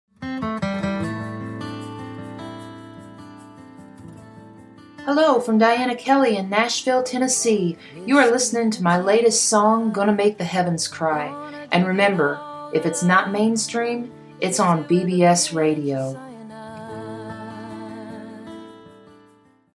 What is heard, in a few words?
bbs radio promo